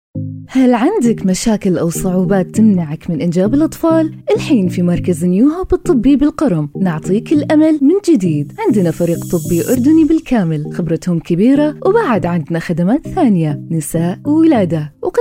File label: Medical Center Ad; New Hope